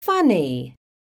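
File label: "FUNNY", English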